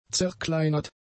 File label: "zerkleinert", German; adjectives